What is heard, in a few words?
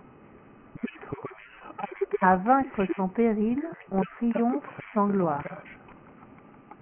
long example separated french